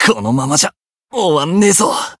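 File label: Voice win barely, Japanese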